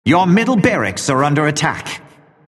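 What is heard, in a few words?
announcer rax attack yr mid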